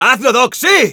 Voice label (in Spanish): Scout activate charge, es; ¡Dale caña, doc!